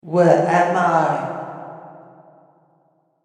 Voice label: Horror Voice Where Am I; Talking Sound Effects

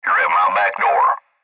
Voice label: Cb Trucker Radio; Radio Voice; Blastwave

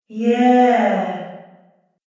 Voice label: "Yeah" With Reverb Female Voice; recorded voice

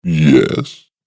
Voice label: "Yes", Evil Voice; Recorded Voice Sound Effects

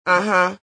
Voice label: Uh Huh, Exclamation, Female

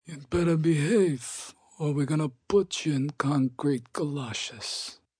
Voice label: Better Behave, Mafia, gangster; Talking

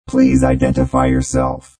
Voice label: Robot Voice "Please Identify Yourself"

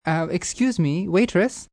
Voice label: Um Waitress, male; Waiter; Talking; VoiceBox

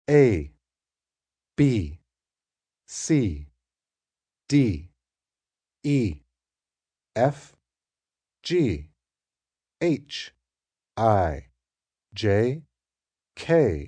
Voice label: Alphabet, male voice, alphabet A to Z; Talking Sound Effects; Letters; VoiceBox; Twistedtracks

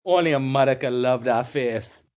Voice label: Only Mother Love, Sayings, male; Talking; Voice Box

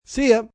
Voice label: See Ya, Goodbyes, male; Greetings And Goodbye; VoiceBox

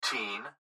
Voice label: Gps Voice, Male

